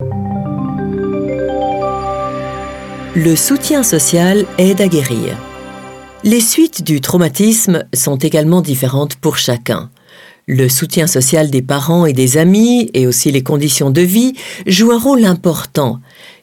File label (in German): Französisch; NAWA